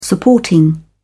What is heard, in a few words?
"supporting", en uk